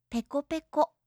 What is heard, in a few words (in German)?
"pekopeko", japanische